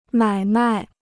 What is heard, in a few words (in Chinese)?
"买卖", Chinese